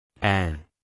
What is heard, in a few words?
Phoneme Male; Commonscript; Lynguistykon